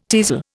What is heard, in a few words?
"teasel", Indian